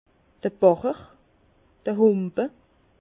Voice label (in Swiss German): "bacher", Bas Rhin; vin; gobelet